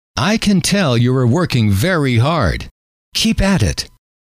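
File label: i can tell you are working, male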